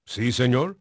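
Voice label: Spanish male, voice; Pirates Of The Caribbean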